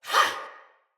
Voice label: Attack Sinecure Soldier Female; Battle; Barks; Dialog

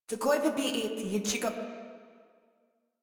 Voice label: Patrol Female; Warframe; Modular Corpus Female

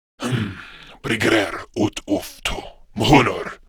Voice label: Patrol, Male; Warframe; Grineer Male; Battle Barks

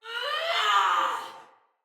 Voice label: Battle Cry Sinecure Soldier Female; Barks; Dialog

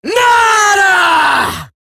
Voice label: Taunt, Female; Warframe; Eximus Grineer Female; Battle Barks

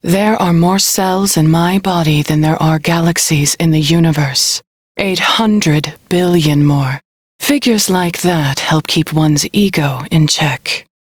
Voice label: Crew Idle, Female; Dialog; en; Warframe; Railjack Crew; New Loka

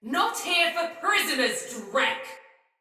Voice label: See Player Shout Sinecure Soldier Female; Battle; Barks; Dialog

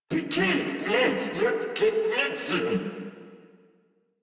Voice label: Surprised Crewmen

